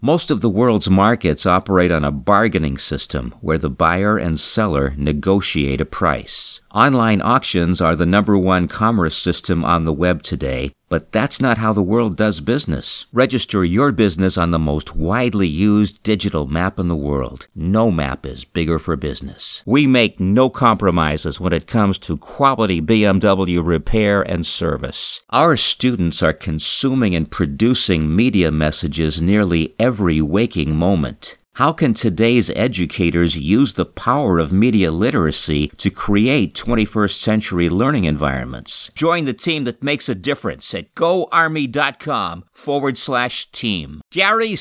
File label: Commercial Collage